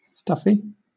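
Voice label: "stuffy", British English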